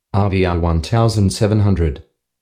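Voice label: rvr 1700, Australian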